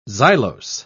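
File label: "xylose", British English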